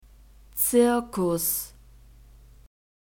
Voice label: "zirkus", German; masculine

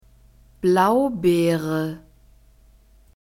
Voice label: "Blaubeere", German; fruits

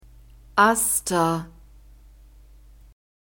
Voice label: "Aster", German; nouns gender feminine

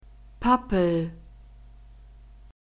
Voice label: "pappel", German; nouns gender feminine

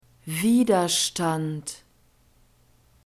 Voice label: "widerstand", German

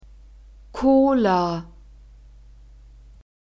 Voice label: "Cola", German; soft drinks new words

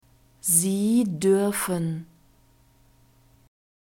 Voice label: sie duerfen, German

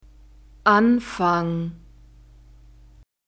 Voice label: "Anfang", German; masculine

